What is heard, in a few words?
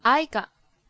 "aika", Hurrian